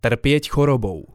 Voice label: "trpiet chorobou", slovencina